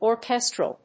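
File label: "orchestral", English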